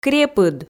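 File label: "крепыд", Komi, female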